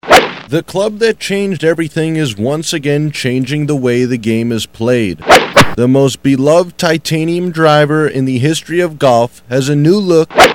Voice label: Golf Ad; Callaway